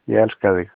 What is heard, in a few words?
I love you, Icelandic